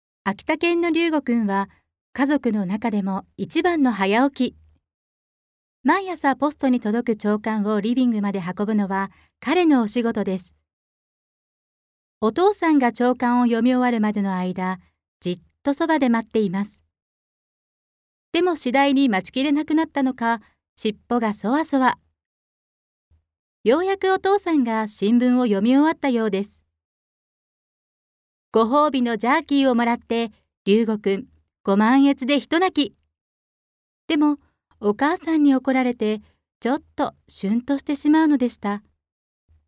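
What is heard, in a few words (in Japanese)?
ナレ, ボイスサンプル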